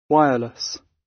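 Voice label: "wireless" British English; Technology; Công nghệ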